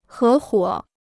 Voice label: "合伙", Chinese

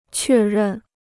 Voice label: "确认", Chinese

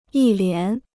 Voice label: "一连", Chinese

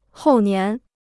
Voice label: "后年", Chinese

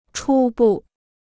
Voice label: "初步", Chinese

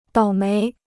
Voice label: "倒霉", Chinese

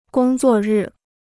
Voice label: "工作日", Chinese

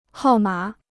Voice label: "号码", Chinese

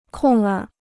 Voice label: "空儿", Chinese

